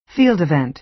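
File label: "field event", American English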